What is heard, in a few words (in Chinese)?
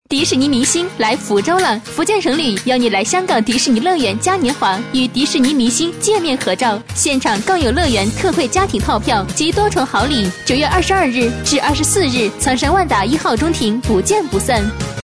女声【品质广告】; 福州迪士尼